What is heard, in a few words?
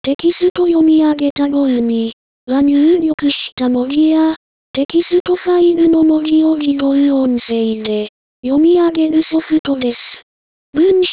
lady